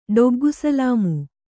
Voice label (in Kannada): "ಡೊಗ್ಗುಸಲಾಮು", Kannada